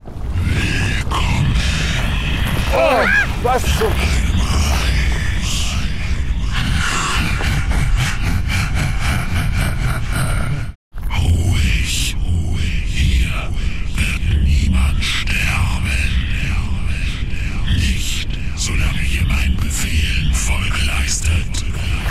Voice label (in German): Hoerspiel; Hunted